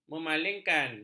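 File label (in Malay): "memalingkan", Malay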